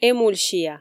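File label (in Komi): "эмульсия", Komi, female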